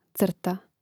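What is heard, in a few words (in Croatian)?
"crta", Hrvatski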